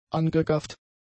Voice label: "angegafft", German; adjectives